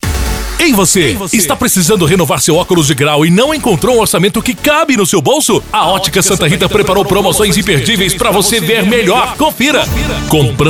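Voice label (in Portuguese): vinheta locutor voz impacto grave, vinheta abertura spot chamada comercial propaganda anuncio; Vinheta para otica oticas otica oticas optica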